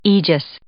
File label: "aegis", English